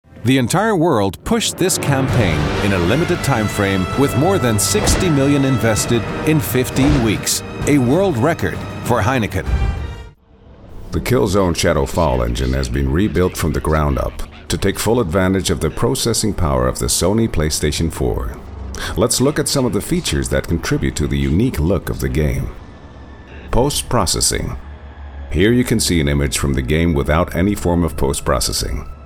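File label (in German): American English, Fremdtake Mix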